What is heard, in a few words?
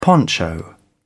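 "poncho", British English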